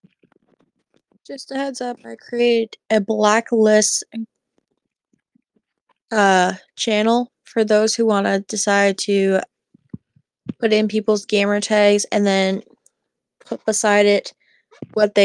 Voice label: voice message